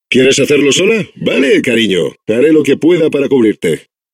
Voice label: Adventure core babble, es; Core voice lines